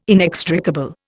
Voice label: "inextricable", Indian